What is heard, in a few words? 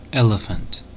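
"elephant", US English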